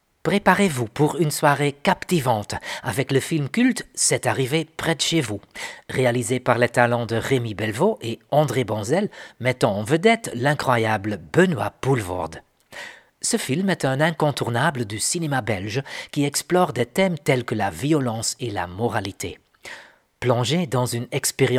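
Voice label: Audio French, voice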